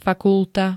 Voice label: "fakulta", Slovenské

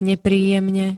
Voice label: "nepríjemne", Slovenské

